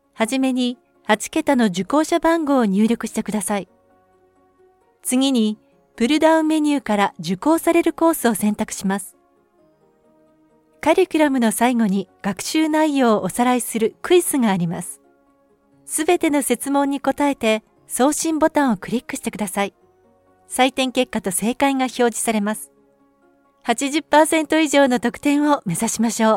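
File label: Japanese e-learning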